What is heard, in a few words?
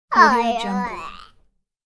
kid disgust sad voice